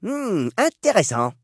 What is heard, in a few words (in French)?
hmm intéressant, gnome, male; PhoenixCraft-1.16.5; PhoenixNPCPack; minecraft